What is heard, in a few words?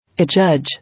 "Adjudge", English